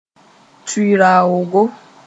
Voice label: "tui-raoogo", Mooré

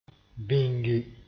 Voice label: "bĩngi", moore

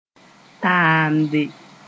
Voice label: "taamde", moore

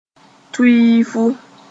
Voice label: "tuiifu", moore

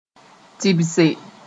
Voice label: "tɩbse", moore

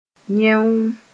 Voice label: "yẽoo", moore

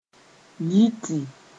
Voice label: "yiti", Mooré

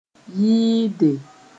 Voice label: "yɩɩde", Mooré

